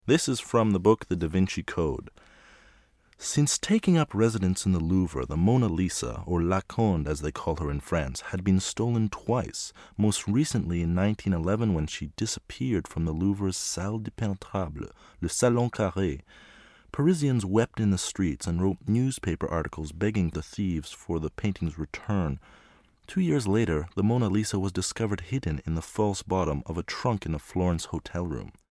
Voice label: Voice normal